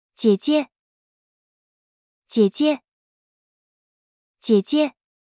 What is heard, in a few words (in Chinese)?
"姐姐", mandarin; Traditional Chinese